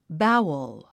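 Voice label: "bowel", English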